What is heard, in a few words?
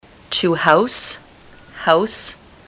to house house, ontario